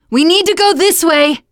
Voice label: follow me, teengirl, voice

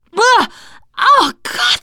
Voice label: boomer reaction, teengirl; player; survivor; voice

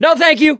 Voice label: reaction negative, survivor voice mechanic

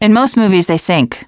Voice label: second saferoom, teengirl, voice

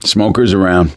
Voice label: heard smoker, survivor voice; gambler

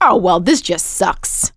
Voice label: reaction negative, survivor voice; producer

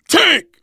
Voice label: warn tank, voice, biker; Left 4 Dead 2; left4dead2 dlc3; survivor

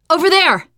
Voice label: look, teen girl; player; survivor; voice

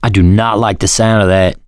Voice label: heard special, survivor voice

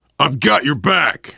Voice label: im with you, voice, biker; Left 4 Dead 2; survivor

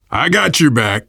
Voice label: you are welcome, voice, biker; Left 4 Dead 2; survivor

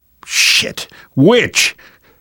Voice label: heard witch, survivor voice; Left 4 Dead 2; left4dead2 dlc3

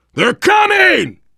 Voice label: mini finale get ready, voice, biker; Left 4 Dead 2; left4dead2 dlc3; survivor

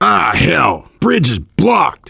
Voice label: directional, voice, biker; Left 4 Dead 2; left4dead2 dlc2; survivor

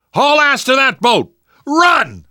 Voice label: arrive boat, survivor voice; Left 4 Dead 2; left4dead2 dlc3

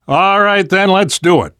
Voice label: generic responses, survivor voice; namvet; Left 4 Dead 2; left4dead2 dlc2

